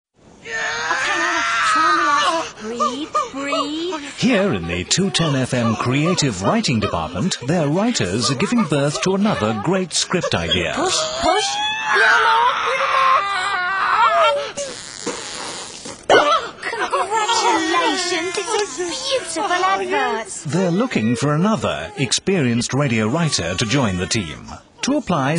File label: Voiceover; London Int Ad Awards; Best Voiceover Artiste Runner Up; Creative Birth